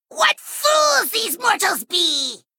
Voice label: Male Sprite Play